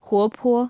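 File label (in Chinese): "活泼" Chinese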